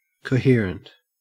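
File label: "coherent", Australian English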